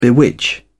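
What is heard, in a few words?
"bewitch", British English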